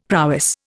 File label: "prowess", Indian; Dictionary